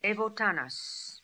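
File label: "Evotanas", pronunciations; Fourth Way; Beelzebub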